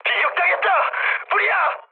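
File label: Korean on fire mood med; War Thunder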